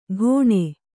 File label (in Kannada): "ಘೋಣೆ", Kannada